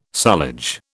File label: "sullage", British